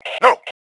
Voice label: "No", male; T2 Male 05